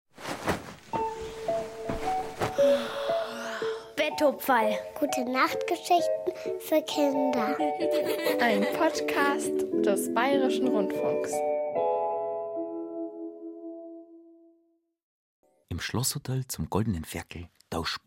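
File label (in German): Flöte Mundart Oberpfalz; schlossgespenst kunibert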